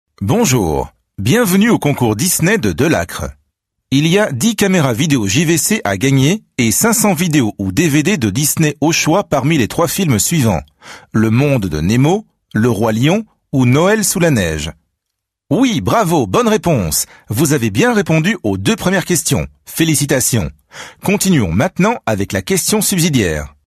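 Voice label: French, male, telecom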